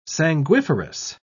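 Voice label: "sanguiferous", British English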